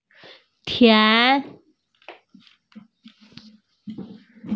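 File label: "tian2", Mandarin Chinese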